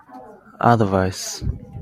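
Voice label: "advice", Japanese, male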